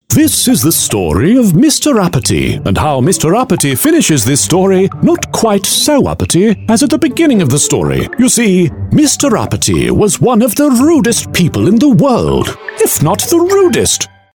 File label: UK English posh